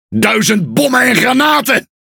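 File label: NL Speech Quick Commands Caramba, Dutch; World Of Warships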